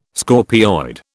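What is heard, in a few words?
"scorpioid", British English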